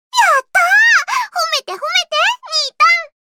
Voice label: Voice victory